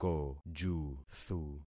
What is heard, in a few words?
"kojuthu", concat syllables; Affixing experiment